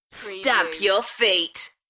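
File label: UK female, DJ vocals; Stamp your feet; beanstalk audio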